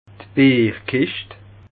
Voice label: "bierkischt", alsace

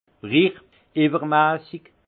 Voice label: "rich", alsace; vin; opulent

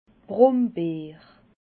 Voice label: "brumbeer", alsace

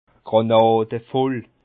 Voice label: "granadevoll", Bas Rhin; complètement saoûl

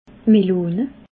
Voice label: "melone", alsace

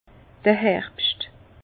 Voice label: "herbscht", Bas Rhin; vin; vendanges

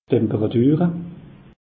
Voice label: "temperature", alsace; meteo

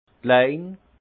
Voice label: "klein", alsace; petit

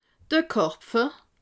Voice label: "karpfe", alsace

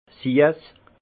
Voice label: "siess", alsace; vin; sucré

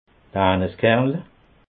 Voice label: "aneskernle", alsace

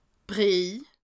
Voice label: "briehj", alsace

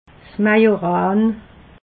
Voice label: "majoran", alsace

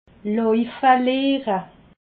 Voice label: "laufe", alsace

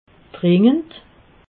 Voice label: "dringend", alsace

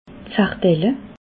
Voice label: "sardelle", alsace

